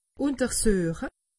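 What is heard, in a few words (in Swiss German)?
"untersuche", Alsatian; medecine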